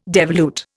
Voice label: "devolute", Indian

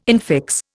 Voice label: "infix", Indian

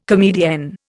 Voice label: "comedienne", Indian

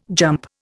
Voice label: "jump", Indian

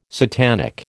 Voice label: "satanic", American

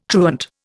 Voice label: "truant", Indian

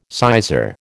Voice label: "sizar", American

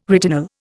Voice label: "retinol", Indian

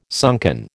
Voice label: "sunken", American

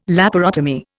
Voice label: "laparotomy", Indian

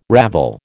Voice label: "ravel", American

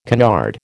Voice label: "canard", American

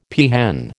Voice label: "peahen", American

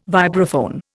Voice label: "vibraphone", Indian

